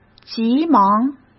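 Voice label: "急忙", Mandarin Chinese; chinese-flashcards; hsk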